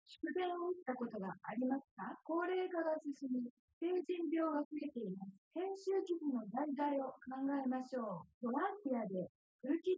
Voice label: female4 synthconv; SiSEC08; SiSEC underdetermined